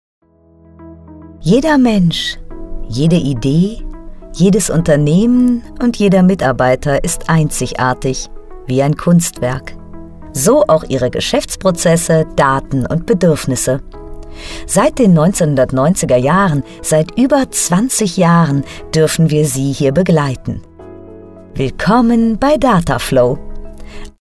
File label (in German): imagefilm; dataflow